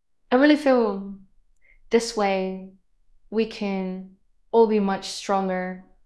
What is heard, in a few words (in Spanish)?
Voice Acting es F0